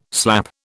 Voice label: "slap", British